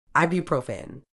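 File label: "ibuprofen", British English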